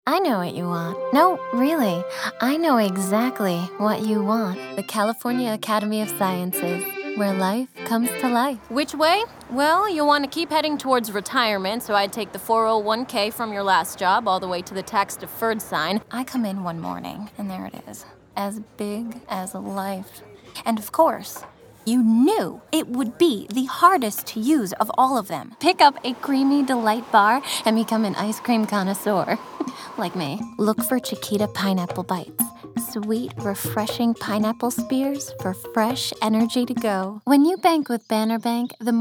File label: commercial women